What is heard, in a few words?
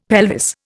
"pelvis", Indian; Dictionary